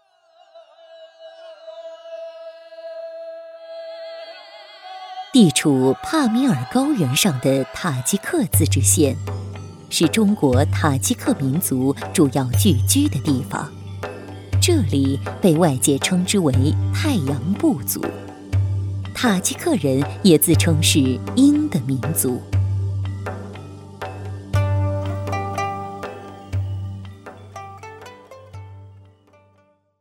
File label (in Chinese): 女 专题 自治县; 塔吉克